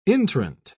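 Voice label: "intrant", English, uk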